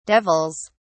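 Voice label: "devils", British English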